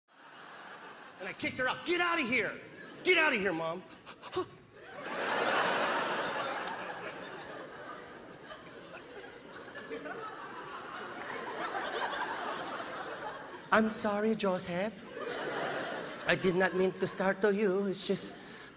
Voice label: snoring comedy stand up; jo koy; comedy central

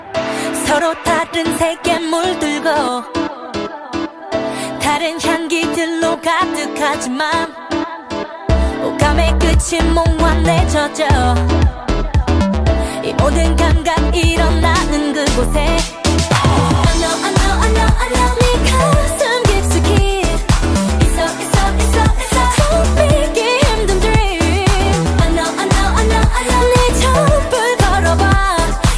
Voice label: Is Calling Pop, ringtones

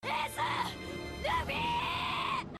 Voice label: Yelling; Sabo

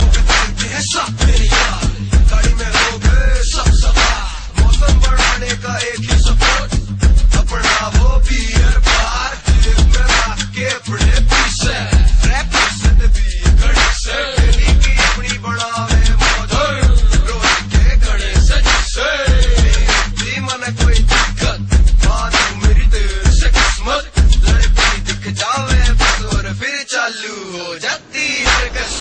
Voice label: RAP

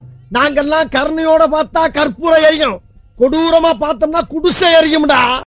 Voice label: comedy; ringtones; funny

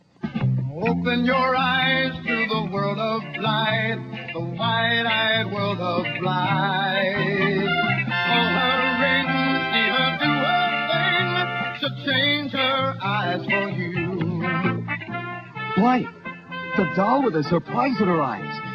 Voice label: commercial; blythe

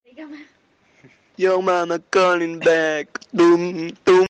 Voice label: Mom Calls

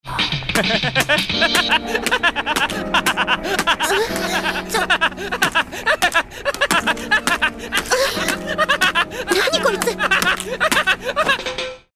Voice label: Scared; Izaya

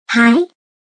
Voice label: "hi", text alert; turret

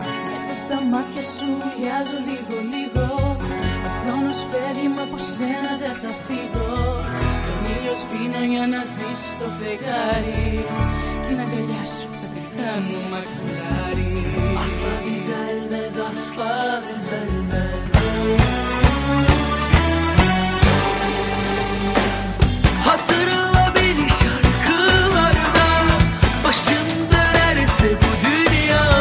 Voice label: Is Calling RNB

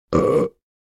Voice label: Funny man

